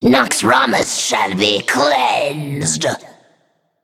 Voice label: Female Naga